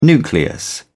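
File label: "nucleus", British English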